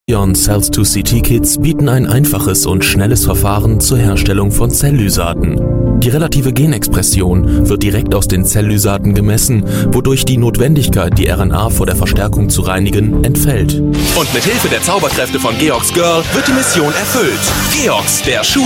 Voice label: German voice reel